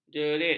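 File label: "jerit", Malay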